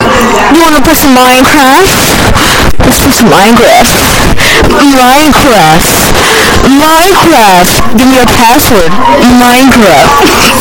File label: wanna play some minecraft LOUD